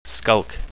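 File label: "skulk", English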